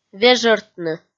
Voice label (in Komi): "вежӧртны" kpv f